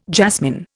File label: "jasmine", Indian